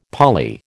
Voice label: "Pali", American